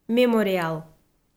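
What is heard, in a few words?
"мемориал", Komi, female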